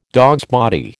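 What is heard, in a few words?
"dogsbody", American English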